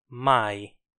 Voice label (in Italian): "mai", Italian